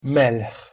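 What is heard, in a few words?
"melh2", Proto-Indo-European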